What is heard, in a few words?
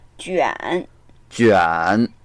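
"juan3", Mandarin Chinese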